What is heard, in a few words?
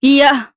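voice Deny, voices heroes, Japanese; Nicky; kingsraid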